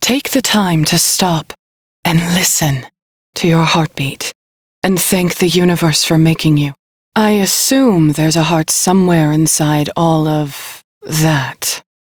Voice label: Crew Idle, Female; Dialog; en